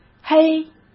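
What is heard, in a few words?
"嘿", Mandarin Chinese; chinese flashcards; hsk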